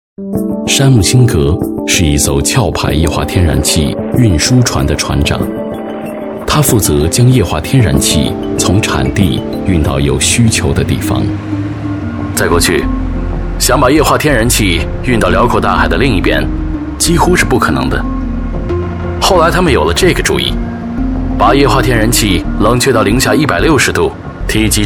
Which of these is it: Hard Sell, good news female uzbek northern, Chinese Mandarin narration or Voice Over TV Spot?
Chinese Mandarin narration